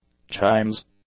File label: "chimes", English; nouns